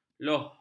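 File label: "loh", Malay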